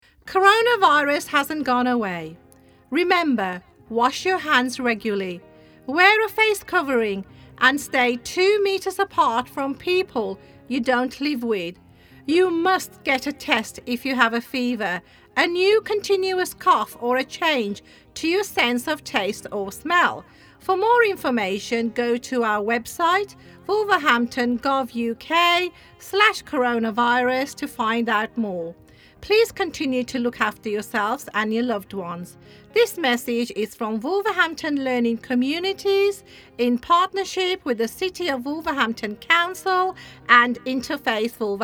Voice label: English